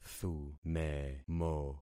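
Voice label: "thumemo", concatenated syllables; Affixing experiment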